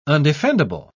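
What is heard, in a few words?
"undefendable", British English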